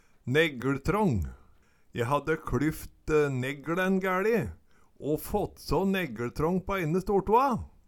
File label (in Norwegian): neggeLtrang, Numedalsmål